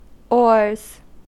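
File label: "oars", US English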